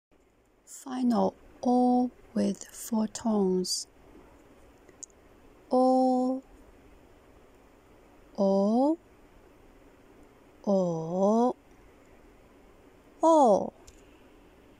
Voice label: o with 4 tones, Mandarin

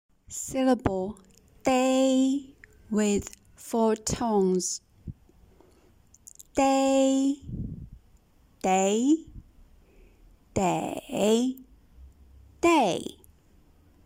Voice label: dei with 4 tones, Mandarin

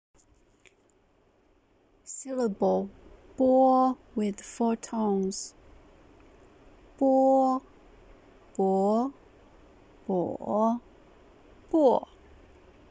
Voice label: bo with 4 tones, Mandarin

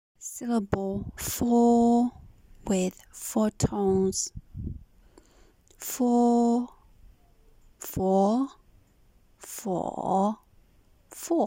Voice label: "fo" with 4 tones, Mandarin

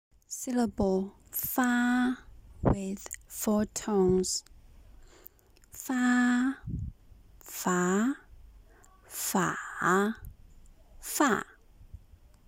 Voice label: "fa" with 4 tones, Mandarin

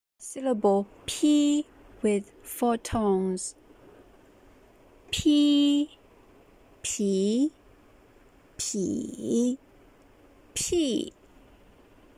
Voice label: "pi" with 4 tones, Mandarin

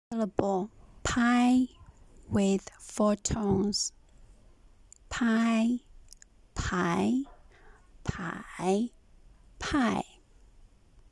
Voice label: "pai" with 4 tones, Mandarin

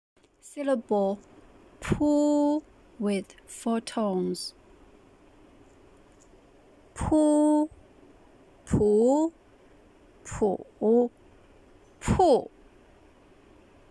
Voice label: pu with 4 tones, Mandarin

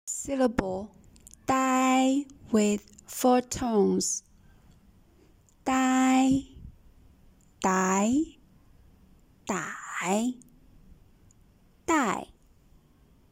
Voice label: "dai" with 4 tones, Mandarin

